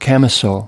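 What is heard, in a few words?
"camisole", US English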